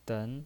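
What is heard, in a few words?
"den" Mandarin Chinese, second tone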